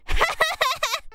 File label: mocking